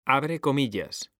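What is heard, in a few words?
"abrir comillas", Spanish; mekanta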